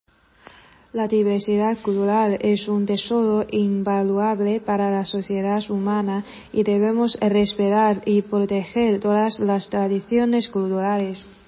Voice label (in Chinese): 西班牙语; La diversidad cultural es un tesoro invaluable para la sociedad humana, y debemos r